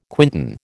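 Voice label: "quintain", American English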